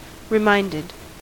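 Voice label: "reminded", US English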